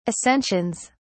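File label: "ascensions", British English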